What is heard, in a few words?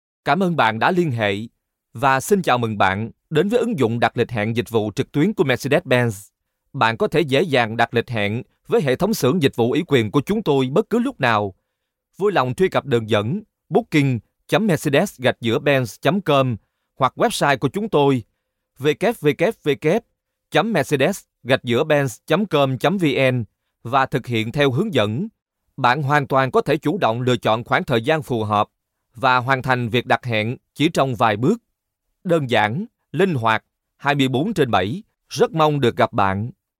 Vietnamese voice telephone